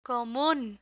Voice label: "comun", Spanish; medical medicine vocabulary; learn spanish

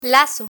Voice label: lazo, learn spanish vocabulary

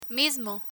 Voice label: "mismo" Spanish; learn spanish

